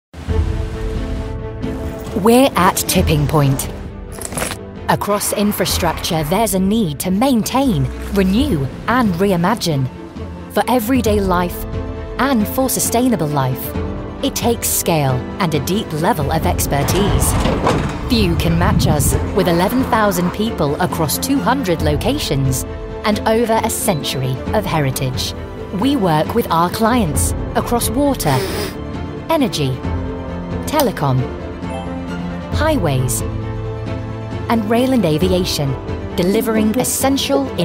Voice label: corporate, Northern Manchester Cheshire Voiceover

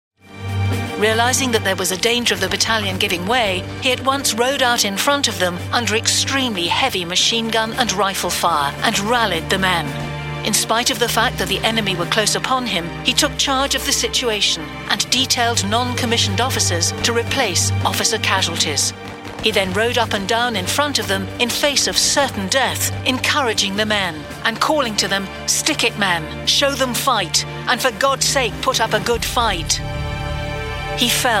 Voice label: narrative

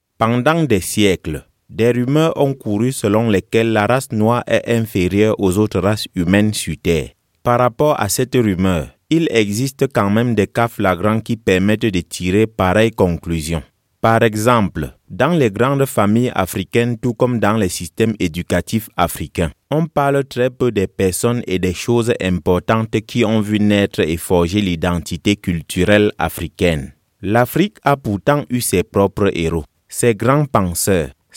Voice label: African French voice documentary

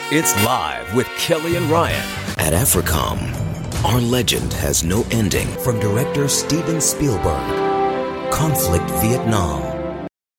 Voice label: american male, Strong Urban American Male Voice; 10 second demo

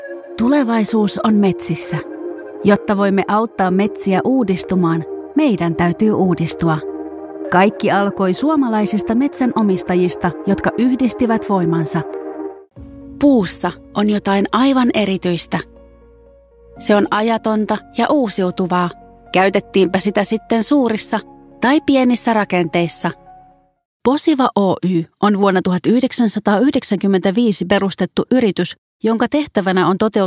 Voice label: finnish female voice

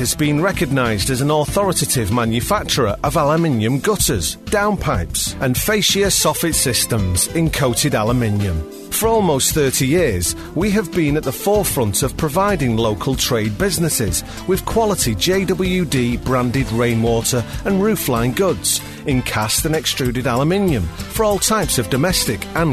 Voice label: on hold reads various

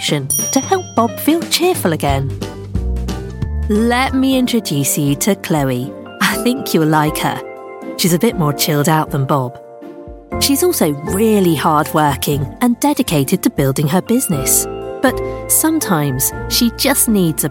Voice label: explainer video

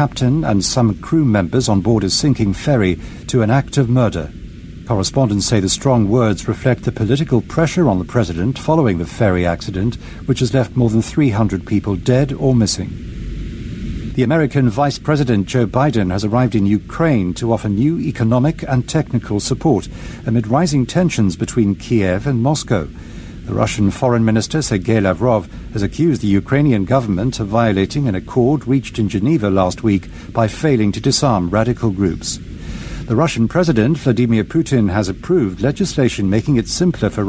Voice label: news reading

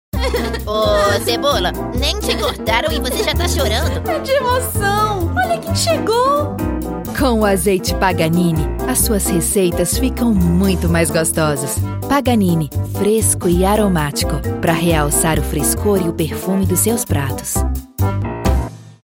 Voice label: brazilian voice character

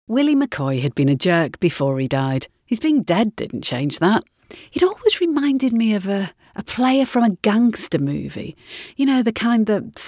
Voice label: audiobooks

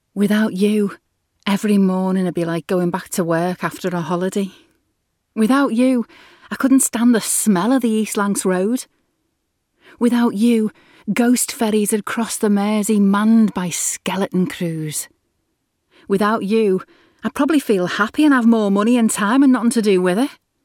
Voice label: liverpudlian

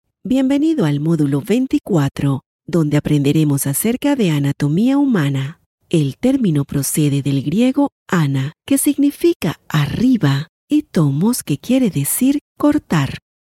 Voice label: Latin Spanish voice e-learning

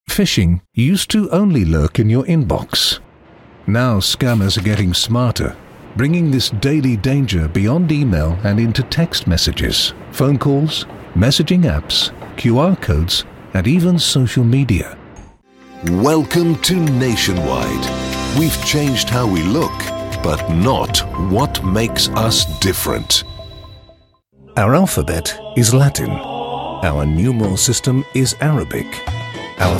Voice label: narration

